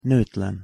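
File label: "notlen", Hungarian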